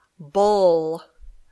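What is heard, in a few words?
"bull", English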